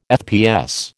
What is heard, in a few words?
"FPS", American